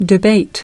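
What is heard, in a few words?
"Debate", English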